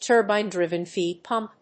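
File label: "turbine driven feed pump", English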